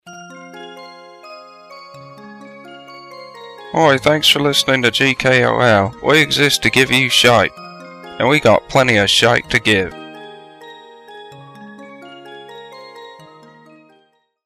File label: bad cockney bump